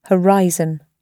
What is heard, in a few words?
"horizon", English